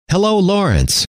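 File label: hello, male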